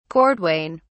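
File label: "cordwain", British English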